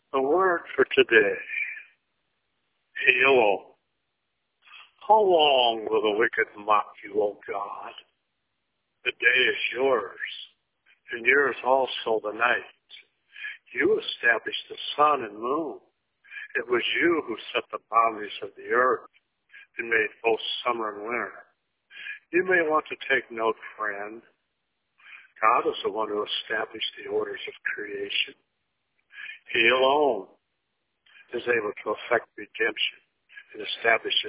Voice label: voicemail